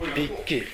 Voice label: "bikki", minamiyamagata; 蛙。〈べっき〉とも。